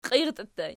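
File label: "qiirtii" ergative plural, Archi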